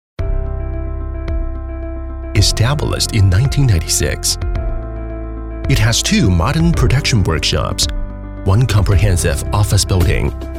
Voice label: 英 男 美式 专题 年轻音色 科技感

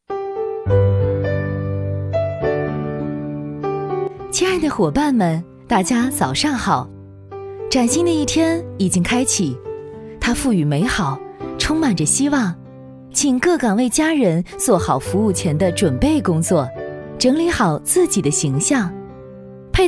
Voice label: 女,温柔广播,营业前播报

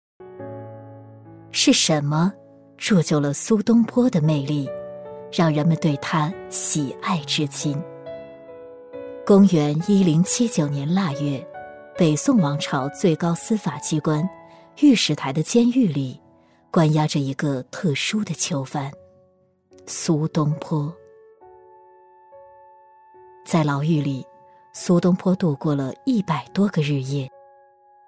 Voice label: 女 纪录片 低沉从容; 苏东坡

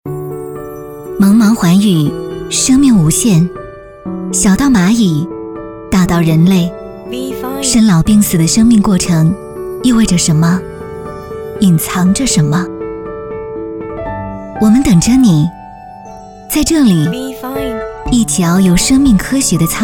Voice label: 女 学院招生宣传; 遵义医科大学基础医

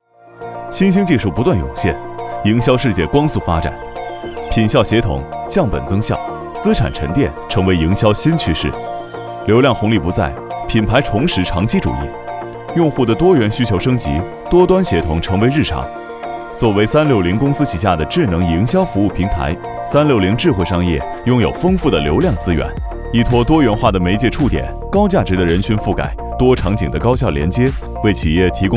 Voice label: 男 宣传片 科技感 年轻 快节奏

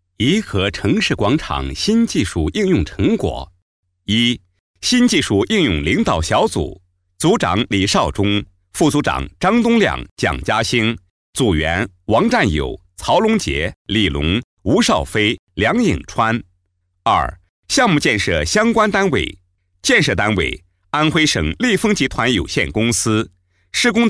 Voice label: 男 多媒体; 怡和城市广场新技术应用成果

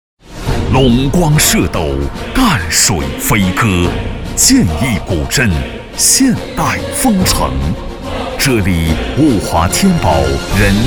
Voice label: 男 专题 大气力度; 学院样音

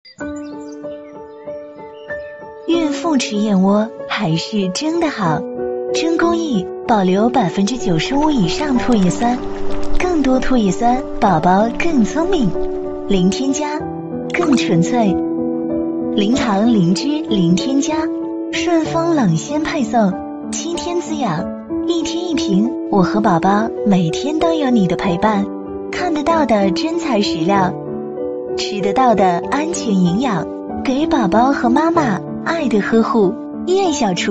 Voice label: 女 广告 燕窝

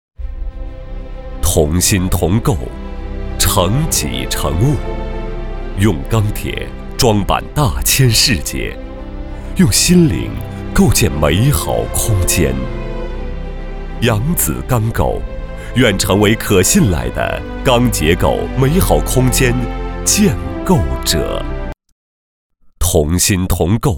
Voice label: 男 独白

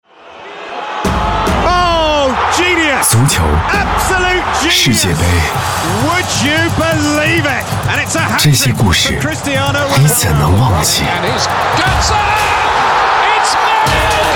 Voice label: 男 电台片头; 这就是足球

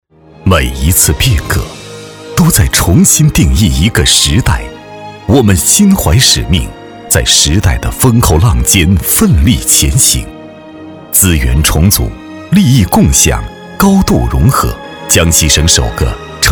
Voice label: 男 宣传片 医疗宣传片

